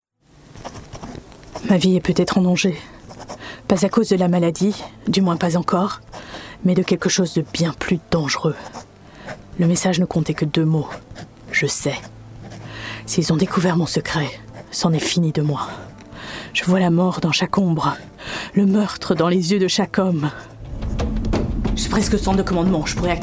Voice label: Gaming FRENCH